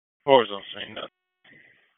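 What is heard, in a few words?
FIRE Operations, p25; FIRE OPS 2; wake p25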